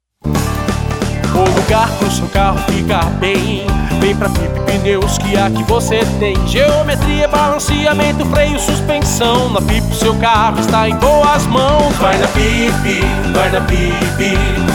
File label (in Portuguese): Jingle Locução; Pipi Pneus